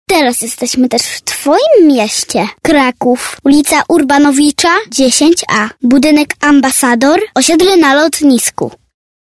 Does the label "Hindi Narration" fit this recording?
No